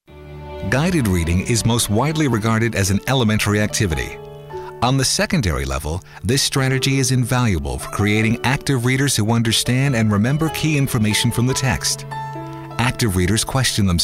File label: Narr